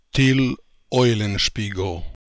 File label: "Till Eulenspiegel", pronounciations; Fourth Way; Beelzebub